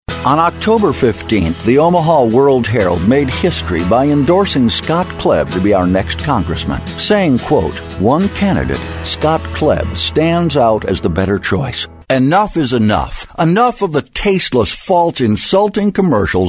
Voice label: Political Spots